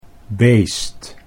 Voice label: "beest", Dutch